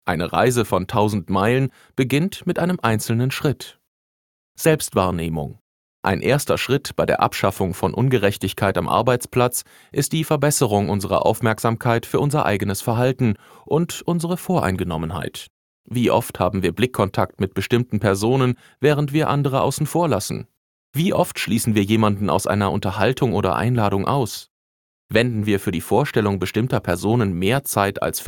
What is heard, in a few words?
elearning; bayer